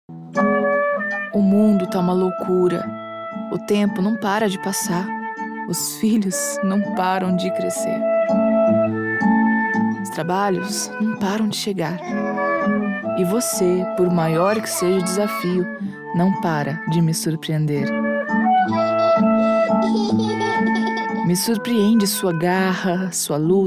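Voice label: commercial ad poetic sweet mothers day female brazilian portuguese voiceover; mitre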